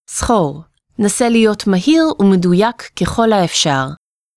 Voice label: Practice Balance, hebrew

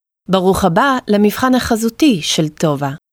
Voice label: Welcome Visual, hebrew

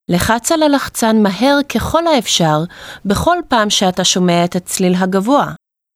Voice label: Press Auditory Target, hebrew

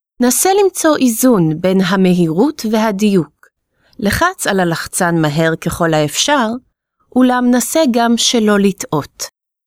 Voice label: Balance, hebrew

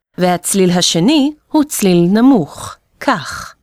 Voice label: Tone Nontarget, hebrew

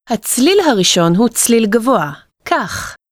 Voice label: Tone Target, hebrew

